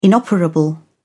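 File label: "inoperable", British English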